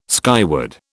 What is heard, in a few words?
"skyward", British